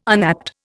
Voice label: "unapt", Indian